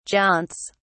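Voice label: "jaunts", British English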